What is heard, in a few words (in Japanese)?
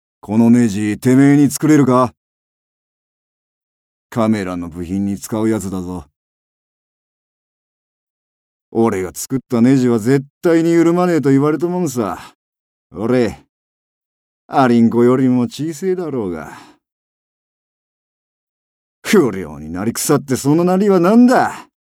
町工場の親父